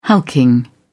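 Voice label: "hulking", English, uk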